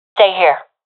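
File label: request hold, professional female; vo; agents; Source Model Packs; Counter Strike Model Pack; CS2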